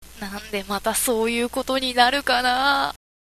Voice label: girl